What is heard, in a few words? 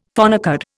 "phonecard", Indian; Dictionary